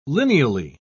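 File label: "lineally", British English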